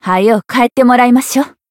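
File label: Voice encounter Japanese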